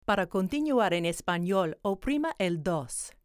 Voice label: Spanish; Spanish Telephony